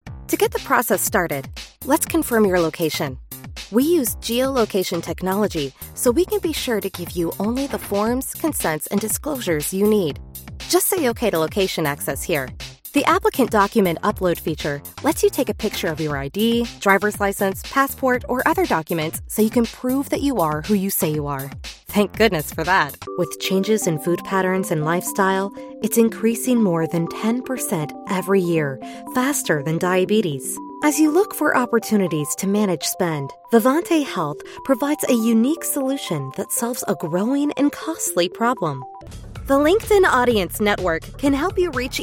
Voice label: english american narration; english american voice actors

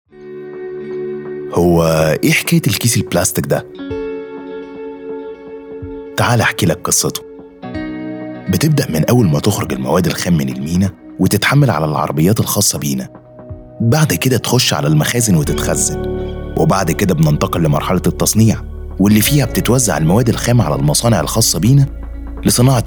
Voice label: arabic narration

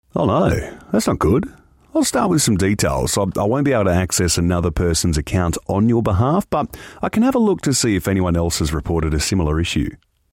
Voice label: english australian podcasts

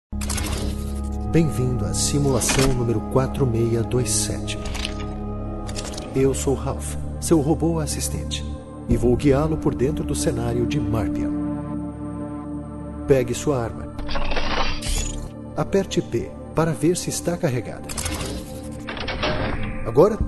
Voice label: Portuguese Brazilian IVR; brazilian portuguese voice actors

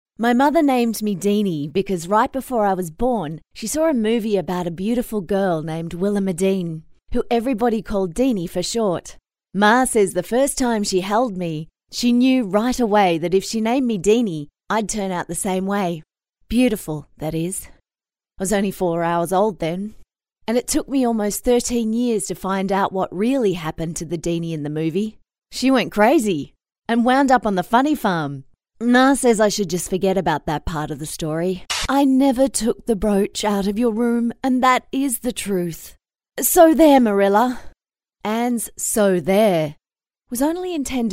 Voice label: english australian audiobooks; english australian voice over talent

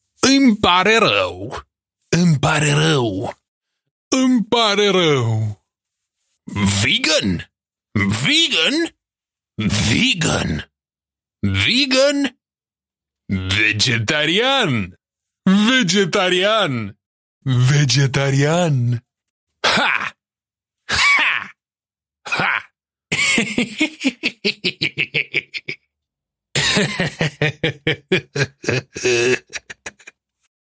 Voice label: romanian video games

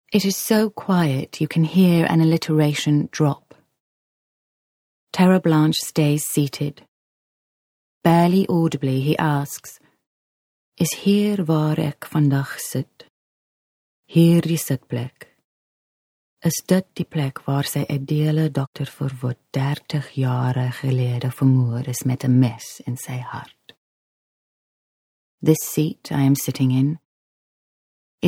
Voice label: english south african commercial

